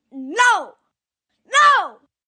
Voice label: Response, 1 boy, 11 yrs.; Talking Sound Effects; Sound Effects:Voice; VoiceBox